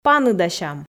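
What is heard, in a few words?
"паныдасям", Komi, female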